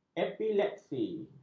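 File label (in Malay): "epilepsi", Malay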